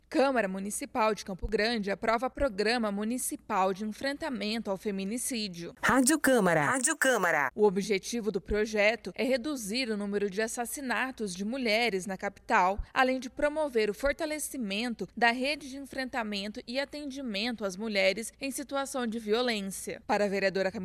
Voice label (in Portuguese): spot feminicidio radio camara